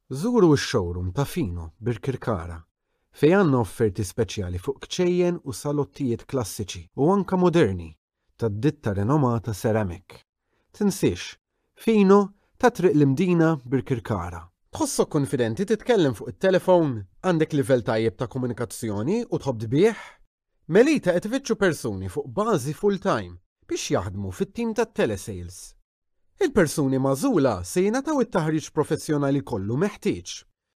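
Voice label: Maltese male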